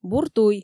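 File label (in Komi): бур туй, Komi, female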